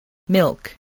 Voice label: "milk", voice, spelling